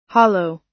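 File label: "hollow", US English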